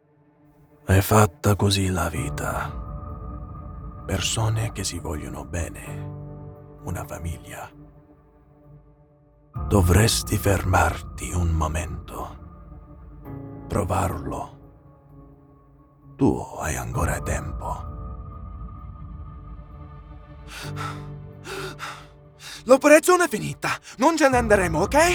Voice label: Video Game Demo Italiano, male voice over